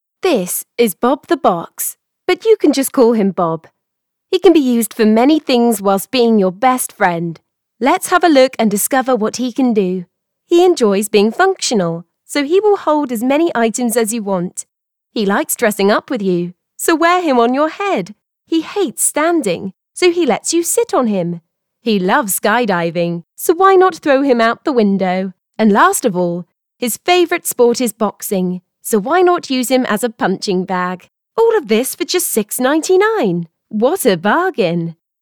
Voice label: 外籍英语女声 玩具广告 干音

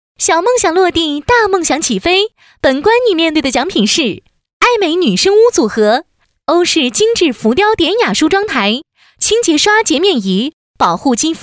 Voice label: 女 栏目配音 栏目商品信息; 北京卫视

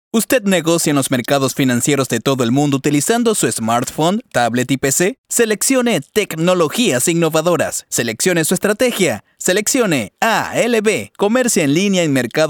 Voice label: 西班牙语男 干音 公司宣传片

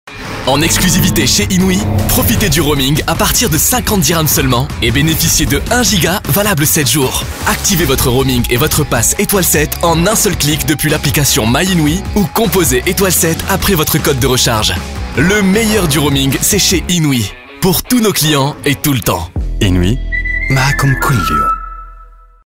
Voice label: 视频广告; Inwi通信运营商

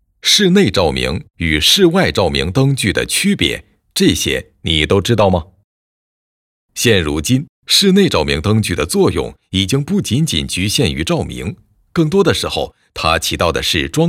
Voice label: 男 培训课件 普通话讲述

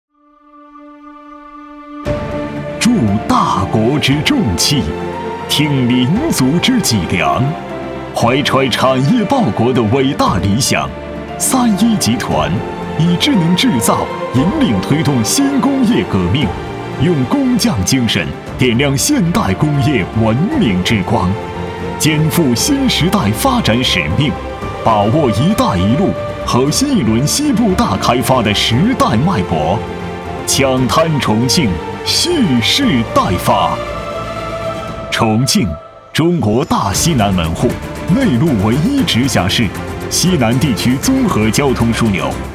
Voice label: 男 大气浑厚; 三一重工宣传

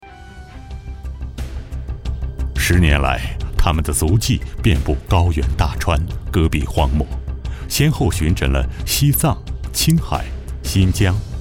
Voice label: 男 央视记录片; 十年来; 武警扶贫

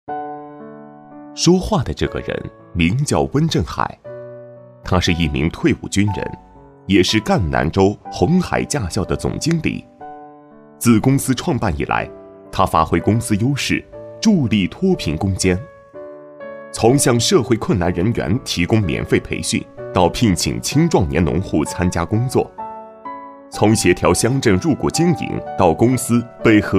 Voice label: 男 人物 讲述感

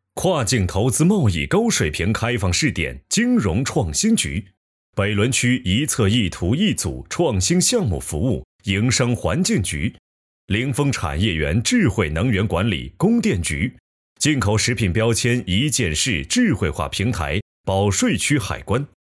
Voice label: 男 专题; 北仑

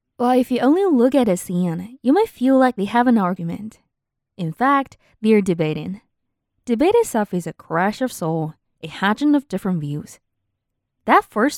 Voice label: 英女 英文辩论 科普

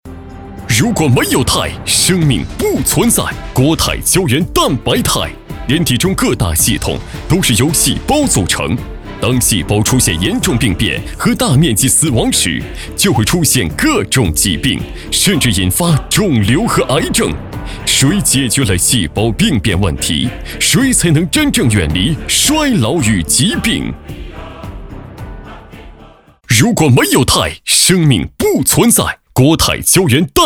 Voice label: 男 促销 激情电视促销; 国肽胶原蛋白肽

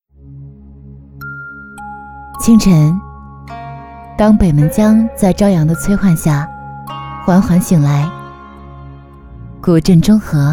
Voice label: 女 宣传片; 古镇中和

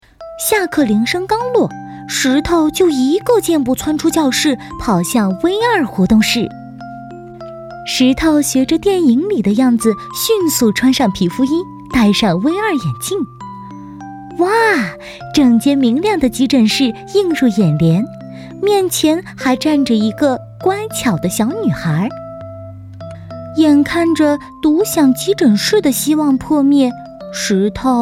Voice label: 女 绘本类 女声旁白

